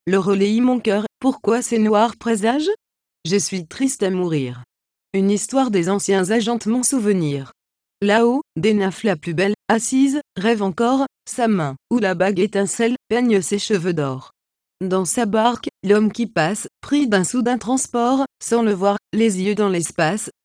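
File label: French, Sprachproben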